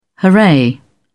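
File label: "hurray", English, British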